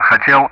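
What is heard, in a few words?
Speech Sound Russian Common Player Alphabet; Hotel-continue; DCS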